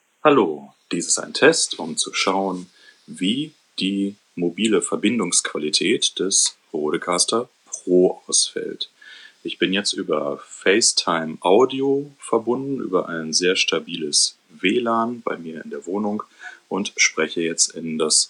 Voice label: iPhone 8 TRRS; Rodecaster